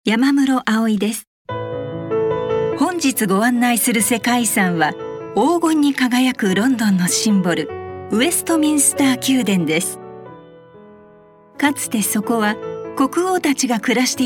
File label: female tv program travel en